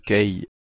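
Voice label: "kei", Proto-Indo-European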